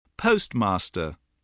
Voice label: "postmaster", English